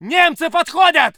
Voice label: voice sov infantry